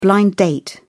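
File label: "blind date", British English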